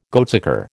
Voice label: "goatsucker", American